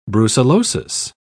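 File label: "brucellosis", British English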